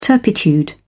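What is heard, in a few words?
"turpitude", British English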